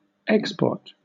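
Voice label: "export", British English; pronunciations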